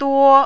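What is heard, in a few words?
"duo", first tone, Mandarin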